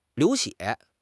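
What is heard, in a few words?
"liu2 xue4", Mandarin Chinese; HSK; HSK4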